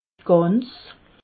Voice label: "gans", alsace

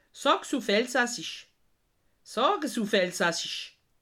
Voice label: "sag", alsace

